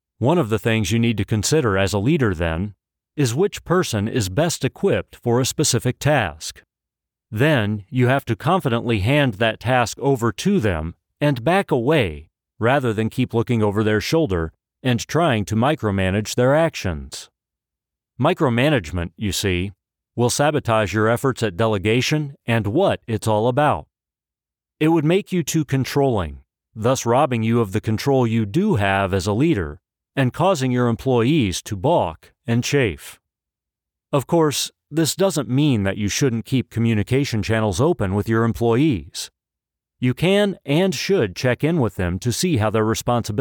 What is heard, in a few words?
Audiobook